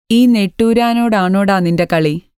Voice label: Malayalam Recordings